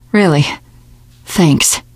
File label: thanks, teen girl; survivor voice